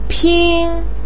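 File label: "pin1", Mandarin pinyin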